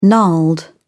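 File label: "gnarled", British English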